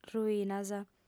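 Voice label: "ruinas", female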